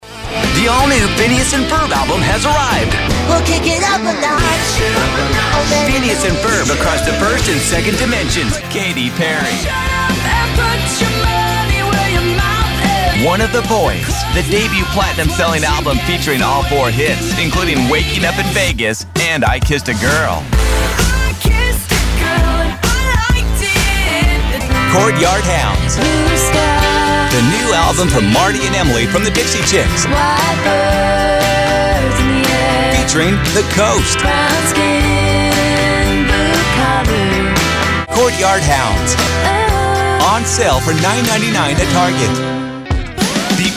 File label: Radio Promos